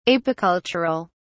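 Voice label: "apicultural", British English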